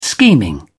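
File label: "scheming", British English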